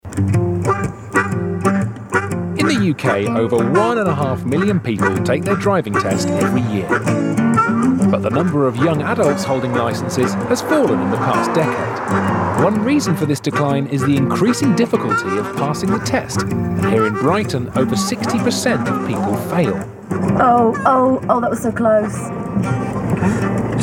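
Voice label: narration; Driving School; Witty, Engaging, Confident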